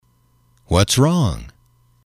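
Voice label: "what is wrong", English, male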